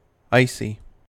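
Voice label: "icy", US English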